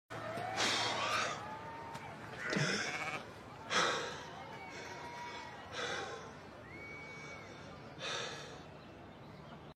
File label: stressed; homelander